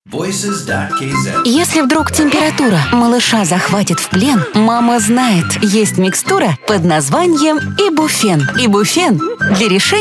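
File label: Russian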